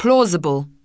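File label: "plausible", English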